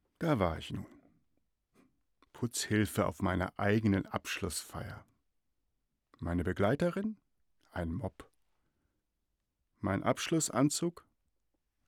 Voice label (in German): Story